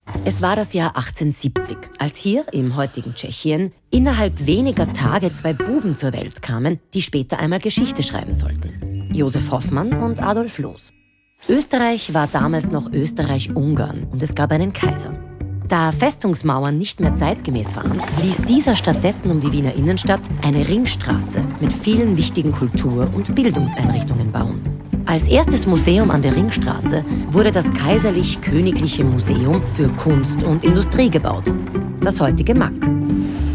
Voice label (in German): AUDIOGUIDE jung erklaerend; MAK WIEN